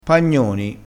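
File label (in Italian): "pagnoni", dialettale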